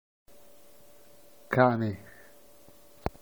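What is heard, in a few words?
"Cane", Italian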